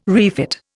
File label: "refit", Indian